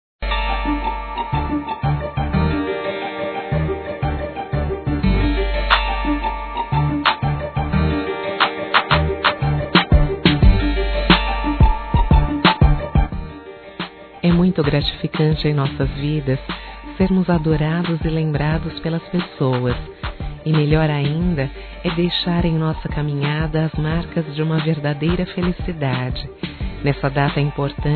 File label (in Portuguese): ANIV. GERAL F